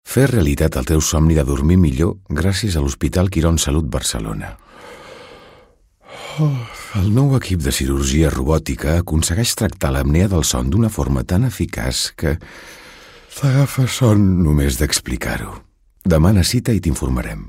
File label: male catalan hospital comercial; ideasonora